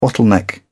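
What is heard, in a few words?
"bottleneck", British English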